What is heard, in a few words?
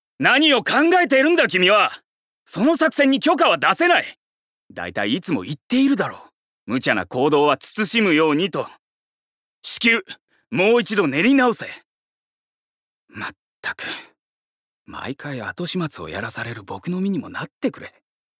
male voice